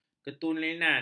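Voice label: "ketulenan", Malay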